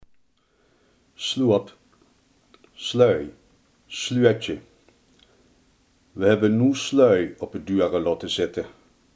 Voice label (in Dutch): "slot", Limburgs